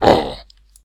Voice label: Hurt, human male evil; customnpcs